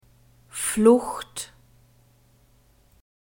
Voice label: "flucht", German; nouns gender feminine

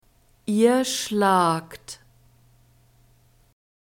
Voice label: "ihr schlagt", German; verbs present tense irregular

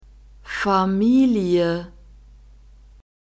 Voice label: "familie", German; nouns gender feminine

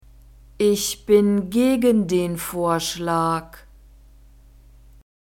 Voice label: "ich bin gegen den vorschlag", German; nouns declension accusative

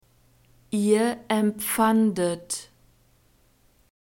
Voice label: "ihr empfandet", German; verbs past tense irregular

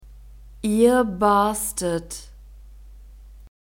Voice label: "ihr barstet", German; verbs past tense irregular

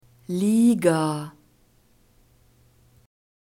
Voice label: "liga", german; nouns gender feminine

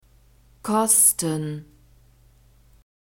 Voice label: "kosten", german

